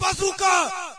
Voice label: ger bazooka; player; Source Engine; dod